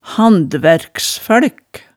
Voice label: "handværksfølk", hemsedalsmaalet

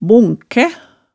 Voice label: "bonkæ", hemsedals maalet tale

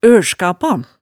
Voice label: "ølskapa", hemsedalsmaalet, tale

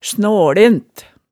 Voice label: "snaalent", hemsedalsmaalet

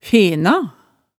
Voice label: "fena", hemsedalsmaalet

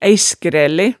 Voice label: "æiskræle", hemsedalsmaalet tale

